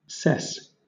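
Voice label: "cess", British English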